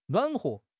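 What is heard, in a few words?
"nuan3 huo5", Mandarin Chinese; HSK; HSK4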